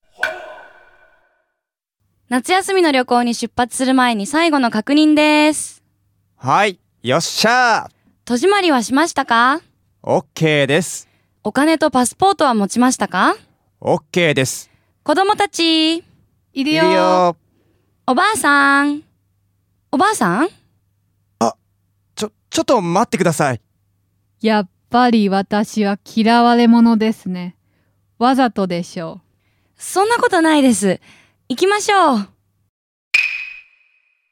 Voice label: dialog; JapanesePod101; Beginner Season 1